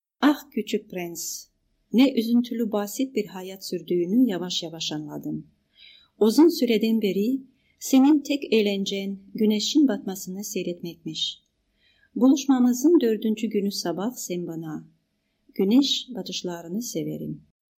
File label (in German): Tuerkisch Ankara